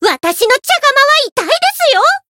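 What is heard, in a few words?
Voice encounter, Japanese; myungwha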